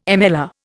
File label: "MLR", Indian